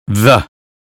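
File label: American phonetics sound this; 英语音标发音（剑桥版）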